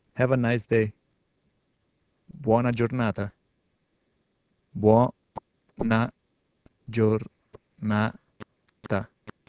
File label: Italian Nice Day